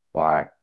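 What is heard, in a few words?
Voice Acting, Spanish, male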